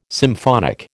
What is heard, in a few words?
"symphonic", American